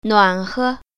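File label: "nuan3he0", Mandarin Chinese Tone Pair Drills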